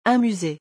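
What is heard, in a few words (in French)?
"Un musee", French; vocabulaire; le lexique des vacances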